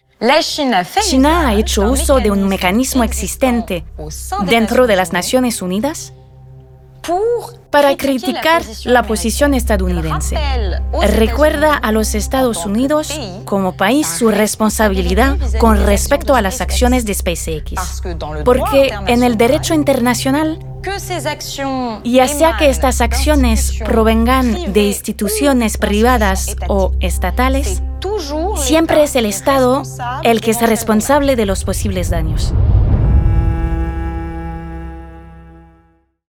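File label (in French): VOIX OFF (Langue espagnole) - Institutionnel, comédienne; TERRESIS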